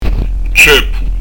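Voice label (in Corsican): "ceppu", Corsican; tʃ'èpu; Son "appuyé"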